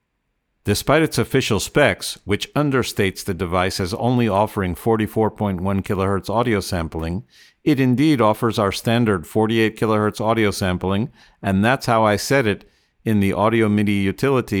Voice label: Plugable USB microphone with noise reduction compression